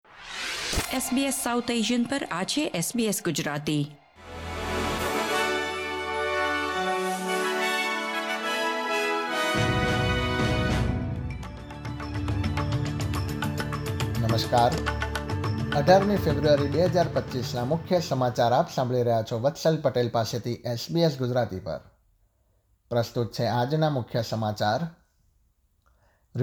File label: gujarati news bulletin; SBS Gujarati